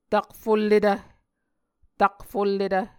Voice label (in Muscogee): "takfulletv", Muscogee